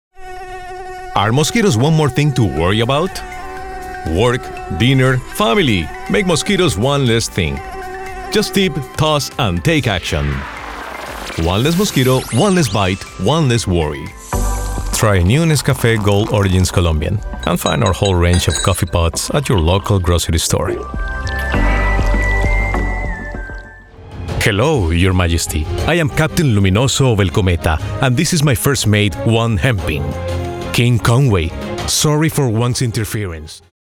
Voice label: accented english